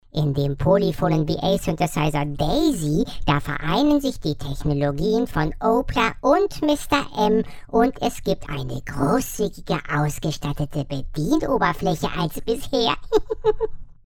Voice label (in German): Default Robot klein; Mackie DLZ Creator XS